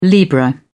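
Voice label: "libra", British English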